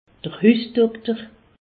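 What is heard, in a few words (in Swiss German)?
"hussdokter", Alsatian; medecine; médecin généraliste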